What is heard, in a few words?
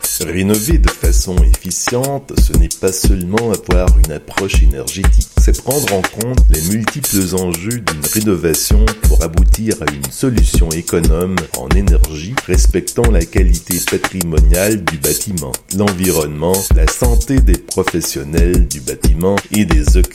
French